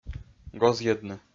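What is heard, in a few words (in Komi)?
"гозйӧдны", Komi-Zyrian, male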